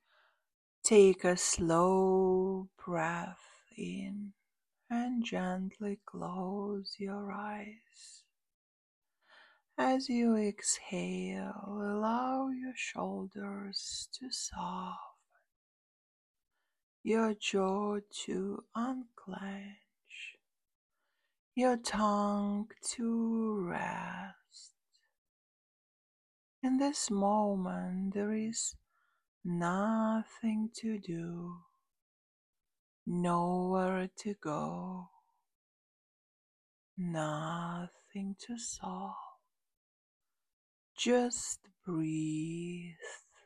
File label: Stress Release Mini Hypnosis